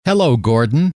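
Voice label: "hello", male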